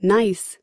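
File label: "nice", US English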